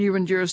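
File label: "you and hers", Northern Irish; jʉənʤɚs; you and yours (NI)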